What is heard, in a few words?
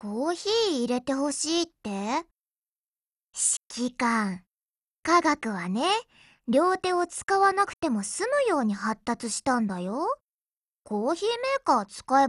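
DIALOGUE, Japanese; Jatimatic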